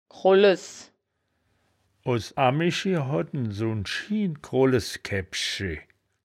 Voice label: "krolles", wittlich

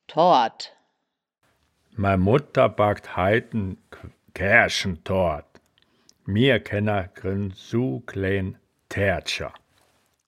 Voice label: "toard", wittlich

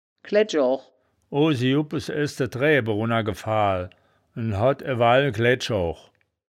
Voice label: "klaeddsch oog", wittlich

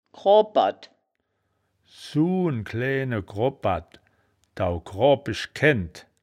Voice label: "kroabperd", wittlich

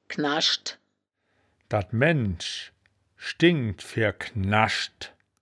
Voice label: "knaschd", wittlicher woerterbuch; das wittlicher woerterbuch; woerterbuch vertonung

